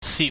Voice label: "sip", Chinese